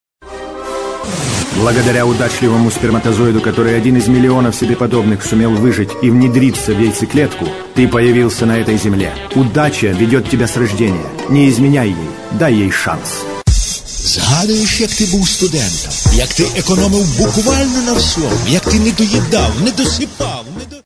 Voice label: voice male